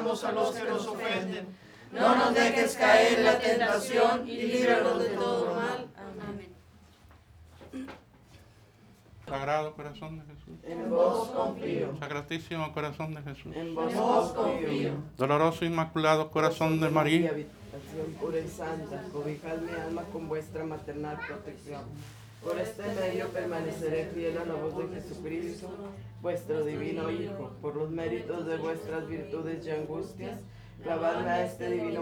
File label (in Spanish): AMB INT DIA CAPILLA MEXICO Padre nuestro oraciones amen